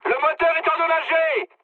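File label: damaged engine, mood high, French; War Thunder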